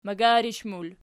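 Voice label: "magarish" plural, Archi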